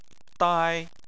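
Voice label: "dai" first tone, Chinese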